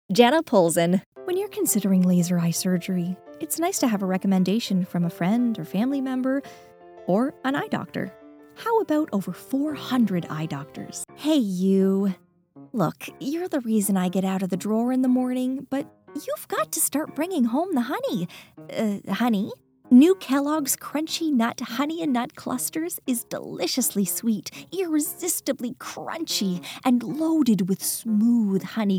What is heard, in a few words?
Commercial